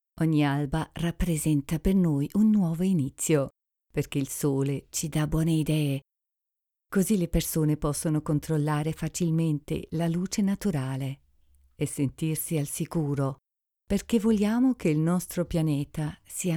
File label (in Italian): ital